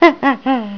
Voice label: Witch; Quagmire